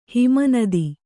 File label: "ಹಿಮನದಿ", kannada